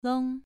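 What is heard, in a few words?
"long", tone 2, pronunciation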